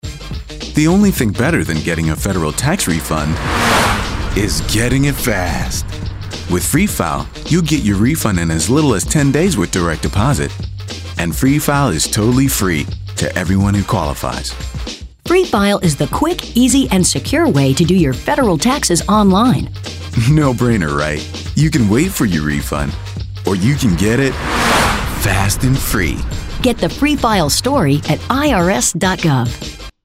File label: radio psa english